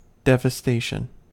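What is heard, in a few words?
"devastation", US English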